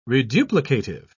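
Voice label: "reduplicative", English, British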